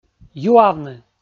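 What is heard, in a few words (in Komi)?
"юавны", Komi, male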